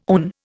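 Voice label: "own", Indian English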